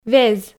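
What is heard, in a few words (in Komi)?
"вез", Komi, female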